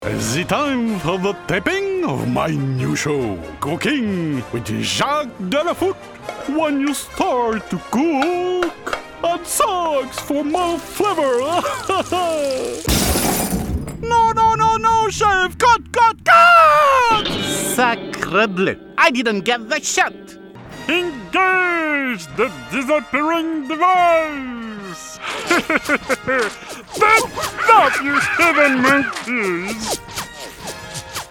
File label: Animation